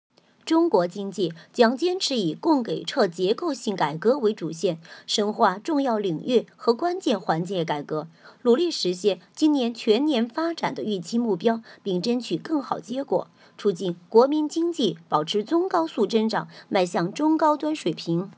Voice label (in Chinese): 新闻类短试音